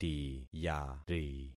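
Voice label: "tiyari", concatenated syllables; Affixing experiment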